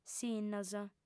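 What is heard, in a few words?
"sinnos", female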